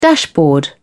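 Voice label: "dashboard", English, British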